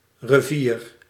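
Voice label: "revier", Dutch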